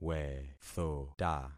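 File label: "wethota", concatenated syllables; Affixing experiment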